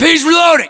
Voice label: inform reloading, US

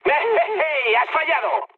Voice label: bomb failure mood med; War Thunder

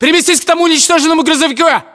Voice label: order cover truck destroyed, ru

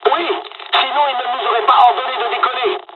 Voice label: dialog, dialogs french; midway; War Thunder

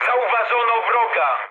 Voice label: enemy detected mood med, speech pol; War Thunder

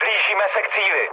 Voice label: near waypoint, speech cze, mood low; War Thunder

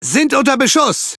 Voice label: Speech Pilots Fighter Under Attack, DE; World Of Warships

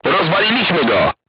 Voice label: voice message target destroyed, polish

